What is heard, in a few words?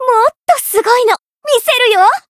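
Voice variable chant, Japanese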